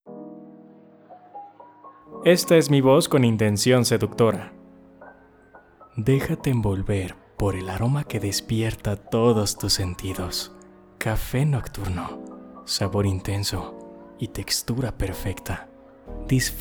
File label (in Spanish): Seductor Musicalizado; locutor